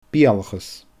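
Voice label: "beulachas", Scottish Gaelic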